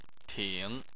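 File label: "ting", Chinese, third tone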